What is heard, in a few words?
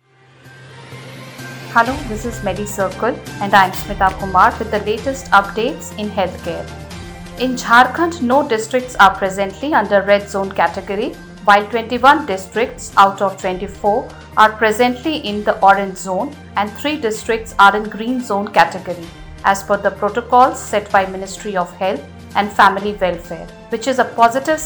english news